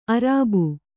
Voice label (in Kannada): "ಅರಬು", kannada